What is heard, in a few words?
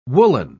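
"woolen", British English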